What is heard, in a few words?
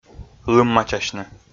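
"лыммачасьны", Komi, male; kpv audio dict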